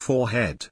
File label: "4head" pronunciation